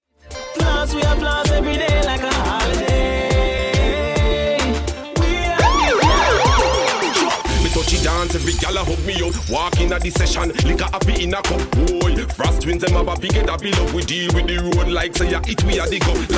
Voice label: voicemail party hard